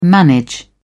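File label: "manage", English, uk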